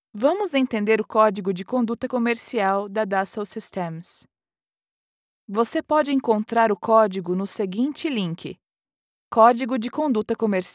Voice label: elearning training elegant informative no background female; dassaultsystemes